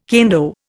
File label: "kendo", Indian